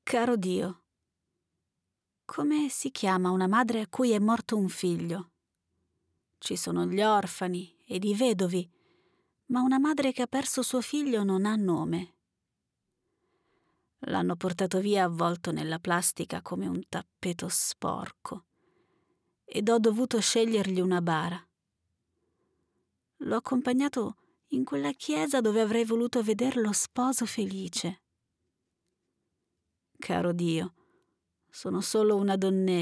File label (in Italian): emozionale